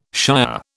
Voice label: "shire", British

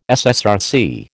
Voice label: "SSRC", American

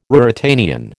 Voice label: "Ruritanian", American

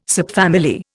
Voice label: "subfamily", Indian

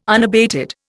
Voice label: "unabated", Indian

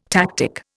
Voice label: "tactic", Indian

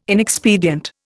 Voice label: "inexpedient", Indian

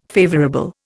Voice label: "favourable", Indian

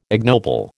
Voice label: "ignoble", American

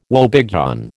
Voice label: "woebegone", American

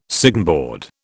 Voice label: "signboard", British

